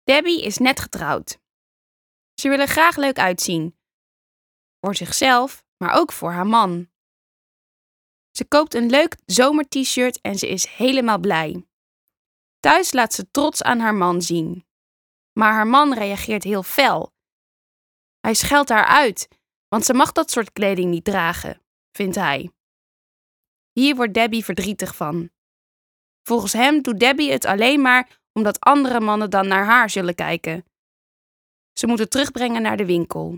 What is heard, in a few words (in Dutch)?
e-learning voorbeeld